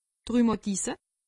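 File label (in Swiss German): "rhumatisse", Alsatian; medecine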